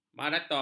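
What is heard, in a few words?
"maraton", Malay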